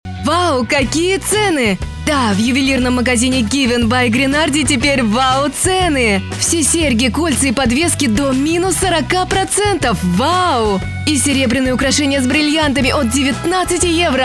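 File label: Russian, female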